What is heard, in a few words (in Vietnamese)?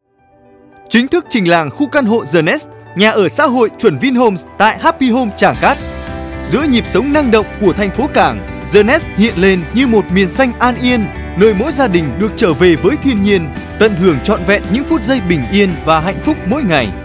thu am loa phat thanh; NOXH The Nest Happy Home